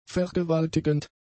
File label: "vergewaltigend", German; adjectives